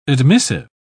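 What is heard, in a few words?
"admissive", British English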